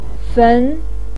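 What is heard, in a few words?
"fen" second tone, Mandarin Chinese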